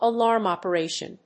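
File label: "alarm operation", English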